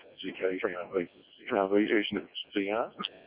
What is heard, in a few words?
decoded speech, parrot